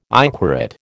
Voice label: "inquorate", American

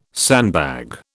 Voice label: "sandbag", British

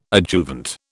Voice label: "adjuvant", British

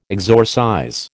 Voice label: "exorcize", American

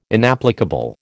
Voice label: "inapplicable", American

